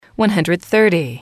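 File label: "one hundred thirty", English, female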